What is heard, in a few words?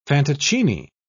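"fantoccini", British English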